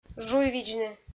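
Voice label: "жуйвидзны", Komi-Zyrian, female; audio dict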